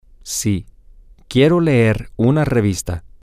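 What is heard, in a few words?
yes I want to read a magazine, Spanish, male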